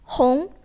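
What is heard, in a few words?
"hong2", Chinese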